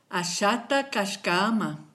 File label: goodbye, kichwa